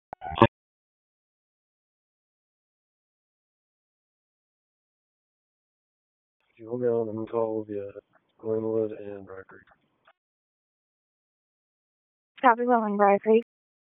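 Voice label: Police Southwest District Dispatch; Raleigh; wake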